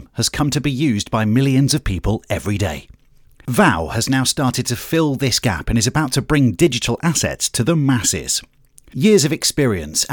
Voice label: English Voice over Corporate Promotion

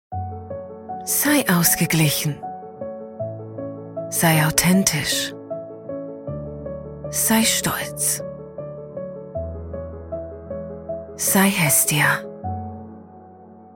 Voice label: peaceful calm German Female Voiceove

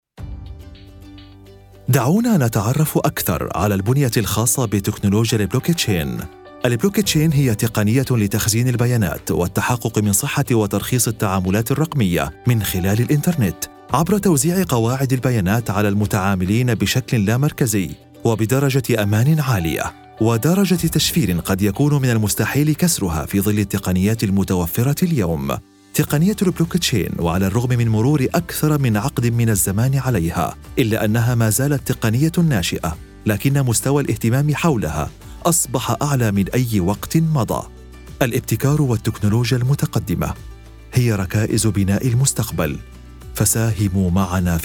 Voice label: Arabic voice acting energetic

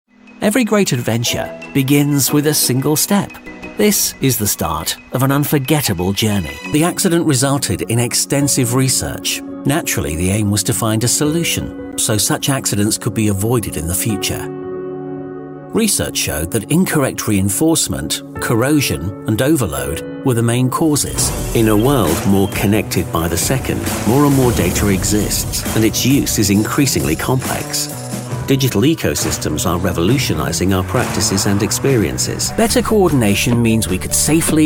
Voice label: Corporate

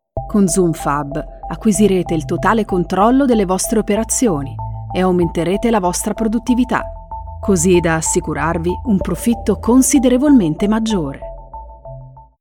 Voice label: Promotional vide

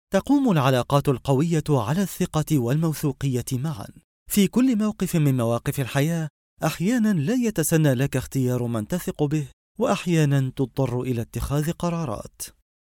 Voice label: Arabic voice over Narrator at normal speed

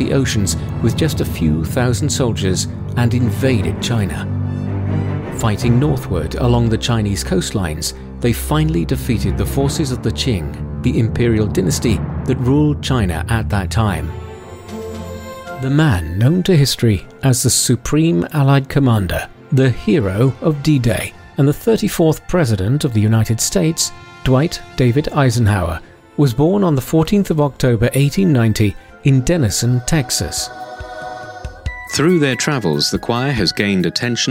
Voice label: english voice over tv narration

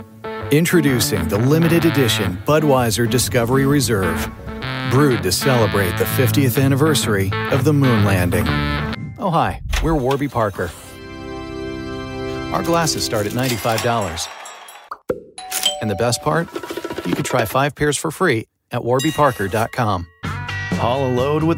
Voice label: American English Voiceover Sample

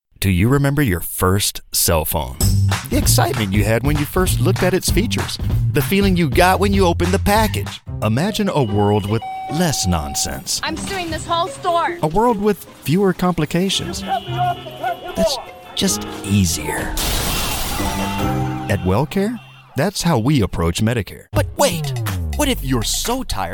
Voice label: English Voiceover

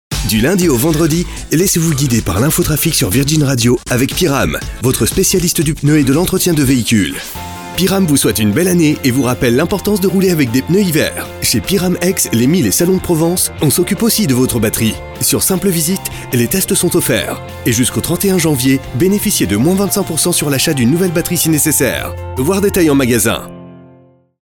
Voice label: French Voice Over corporate promotion

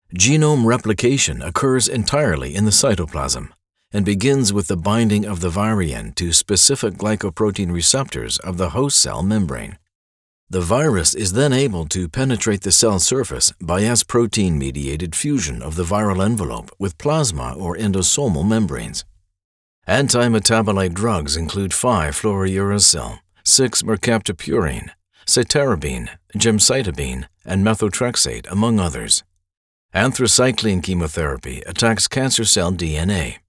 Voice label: medical narration

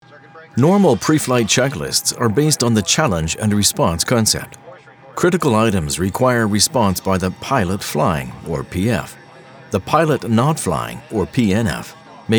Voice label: E-learning

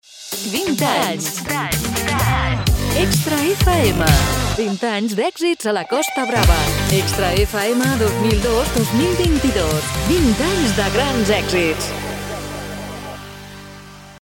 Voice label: animated energetic radio spot

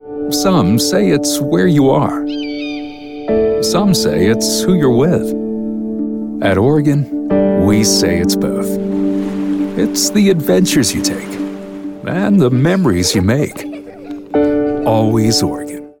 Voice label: American English Voiceover Sample

